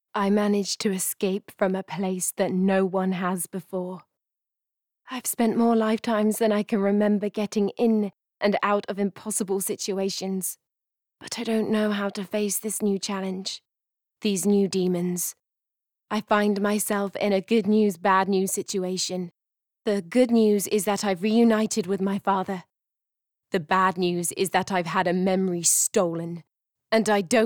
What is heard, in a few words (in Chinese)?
外籍英语女声 角色 绝望且幽怨